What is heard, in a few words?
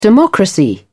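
"democracy", British English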